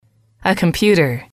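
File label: "computer", English, female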